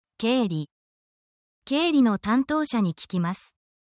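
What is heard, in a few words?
Japanese word, essential Japanese phrases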